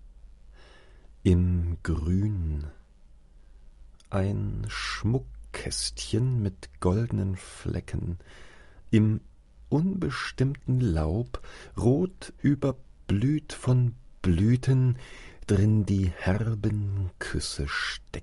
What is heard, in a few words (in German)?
Gedicht, „Faunskopf“ von Arthur Rimbaud; Faunskopf1